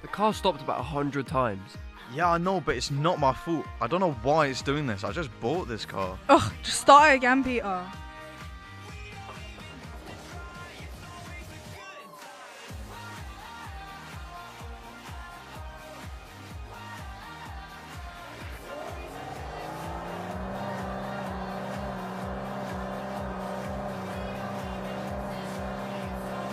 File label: Audio Drama; The Walls Whisper